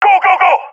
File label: request move, balkan; balkan epic